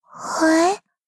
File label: shock